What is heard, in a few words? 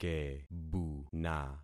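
"kebuna", concatenated syllables; Affixing experiment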